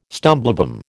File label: "stumblebum", American